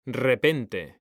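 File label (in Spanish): "repente", male; mekanta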